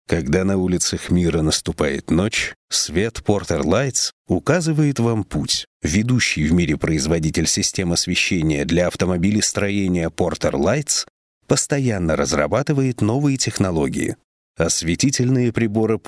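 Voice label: reklama, Russian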